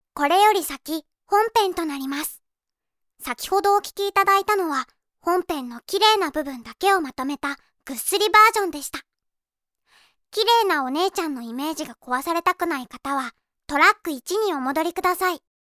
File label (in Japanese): 注意喚起